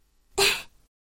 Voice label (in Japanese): 懲罰中音声, ASMR